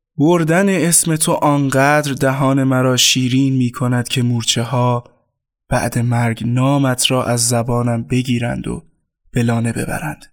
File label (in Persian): نمونه صدای دوم شعر